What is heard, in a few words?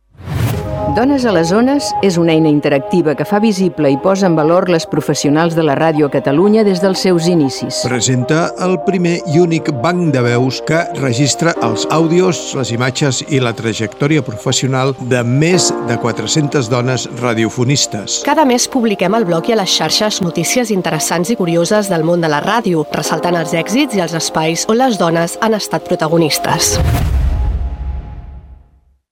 PROMO; DONES de 400